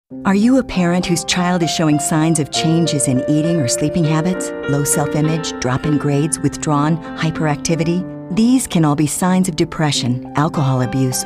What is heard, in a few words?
concerned